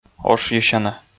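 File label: "ошйысяна", Komi, male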